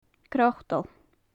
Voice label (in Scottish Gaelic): "crotal", gaelic; lichen; crotail; Faclan Nàdair